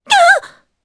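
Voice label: Vox Japanese; Laias; kingsraid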